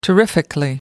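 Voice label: "terrifically", English, British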